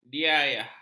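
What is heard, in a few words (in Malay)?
"diayah", Malay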